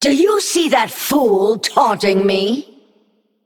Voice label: Female Naga ERROR TAUNT